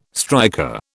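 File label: "striker", British English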